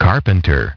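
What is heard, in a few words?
"carpenter", English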